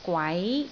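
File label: "gwai2", Cantonese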